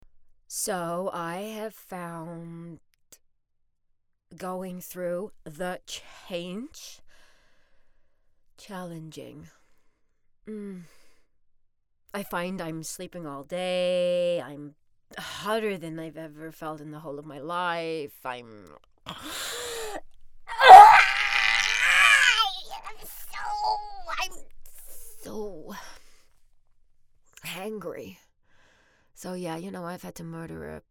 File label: American Vampire Sattire; VOICEFOX